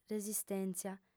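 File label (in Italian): "resistèntzia", lingua sarda, fem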